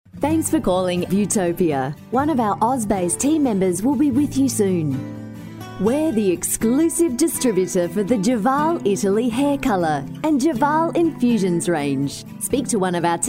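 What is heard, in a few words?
english australian interactive voice response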